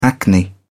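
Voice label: "acne", British English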